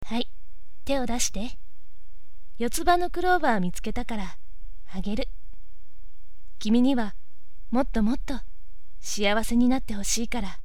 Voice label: voice otoko